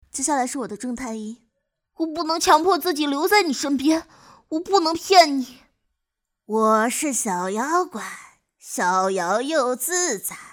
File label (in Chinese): 简单 正太 男孩 声展